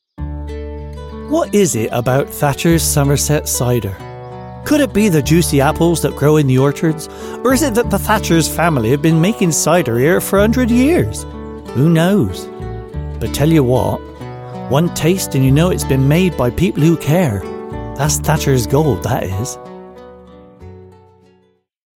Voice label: advert; thatchers cider